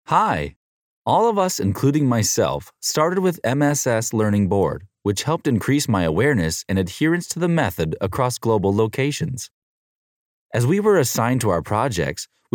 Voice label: character, US american english, US accent